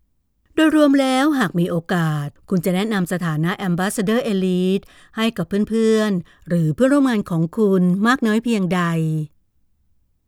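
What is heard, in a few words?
Thai, female, narrative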